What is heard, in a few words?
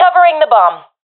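guarding live bomb, professional female; vo; Counter Strike Model Pack; CS:GO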